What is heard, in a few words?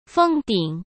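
"封顶", Mandarin Chinese; hsk; chinese-flashcards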